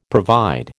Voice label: "provide", American